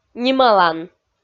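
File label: "нималан", Komi, female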